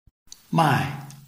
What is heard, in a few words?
"mai4", Chinese